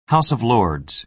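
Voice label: "house of lords", American English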